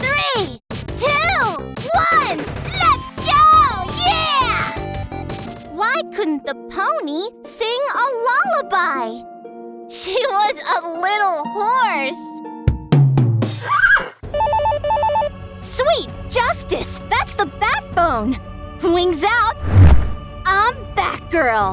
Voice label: Talking Toys